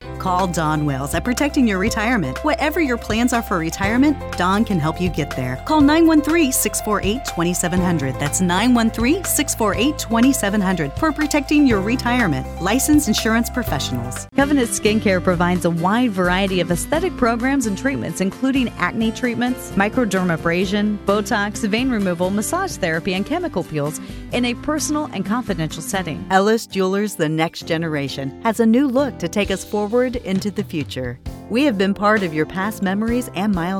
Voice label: voiceover demo